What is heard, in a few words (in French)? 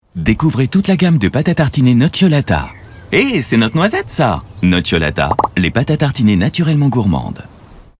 vendeur, voix off publicite; NOCCIOLATA